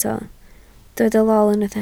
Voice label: "lol", Welsh